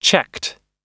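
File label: "checked", American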